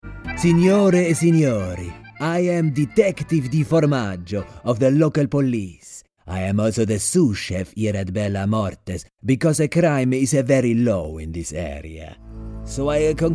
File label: English With Italian Accent Voicereel